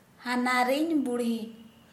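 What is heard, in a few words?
mother in law, Santali, female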